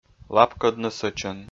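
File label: "лапкӧдны сӧчӧн", Komi, male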